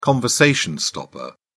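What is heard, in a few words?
"conversation stopper", British English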